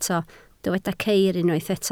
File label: "ceir", Welsh